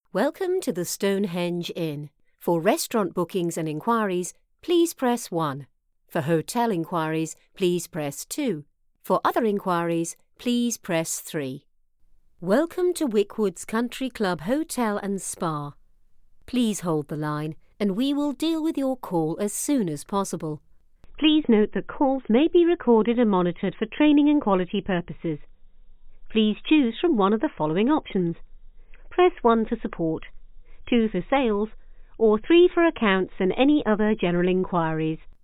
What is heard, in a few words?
IVR samples